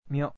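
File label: "myo", Japanese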